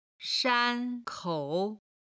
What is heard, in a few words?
"shan1 kou3", Chinese